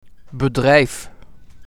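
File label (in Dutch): "Bedrijf", Dutch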